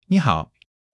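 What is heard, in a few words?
"ni3 hao3", Mandarin Chinese; HSK1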